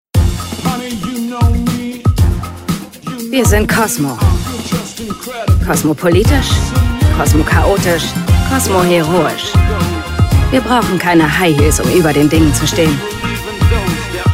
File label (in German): telefonansagen sprecher; mila kunis